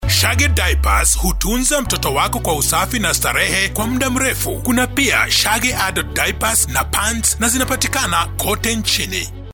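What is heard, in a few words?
Swahili Commercial; GoLocalise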